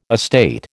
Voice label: "estate", American

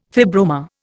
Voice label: "fibroma", Indian

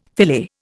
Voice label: "filet", Indian

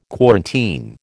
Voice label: "quarantine", American

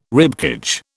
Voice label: "ribcage", British

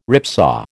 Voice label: "ripsaw", American

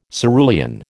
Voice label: "cerulean", American

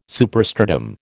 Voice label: "superstratum", American

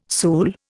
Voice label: "sool", Indian

